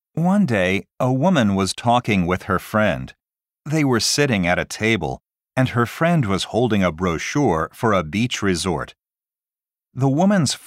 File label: Speaking Model Narration